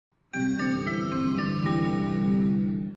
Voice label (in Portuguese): comboios de portugal anuncio